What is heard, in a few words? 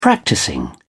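"practising", English, British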